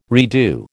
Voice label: "redo", American